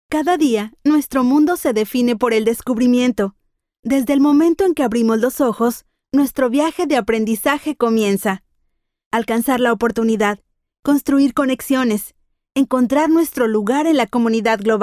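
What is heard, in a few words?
Spanish narration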